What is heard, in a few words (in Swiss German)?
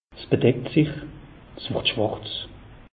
"wurd schwarz", alsace; meteo